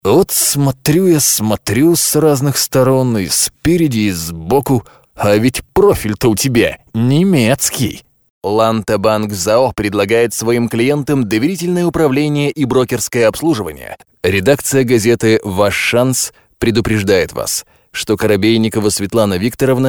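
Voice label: Russian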